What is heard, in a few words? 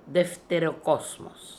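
"Defterocosmos", pronunciations; Fourth Way; Beelzebub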